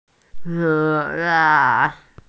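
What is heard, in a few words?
"gong1yuan2", Mandarin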